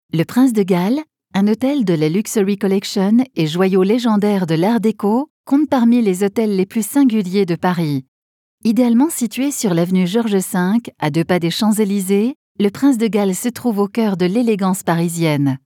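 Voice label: French message on hold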